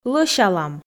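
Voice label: "лӧсялам", Komi, female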